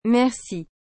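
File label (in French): "Merci", French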